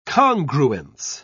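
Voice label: "congruence", British English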